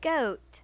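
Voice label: "goat", English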